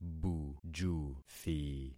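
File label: "bujuthi", concatenated syllables; Affixing experiment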